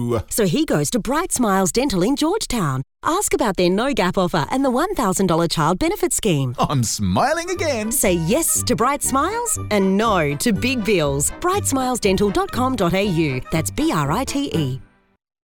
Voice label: radio speech